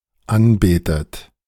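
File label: "anbetet", German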